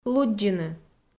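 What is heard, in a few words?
"луддзыны", Komi, female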